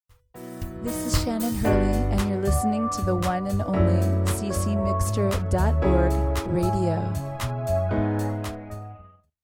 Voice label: radio promo; ccmixter